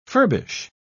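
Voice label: "furbish", British English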